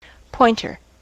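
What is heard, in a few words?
"pointer", US English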